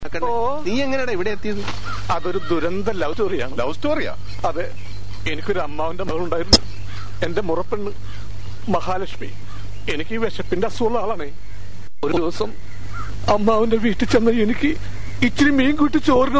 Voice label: comedy